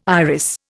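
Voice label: "iris", Indian English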